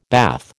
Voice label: "bath", American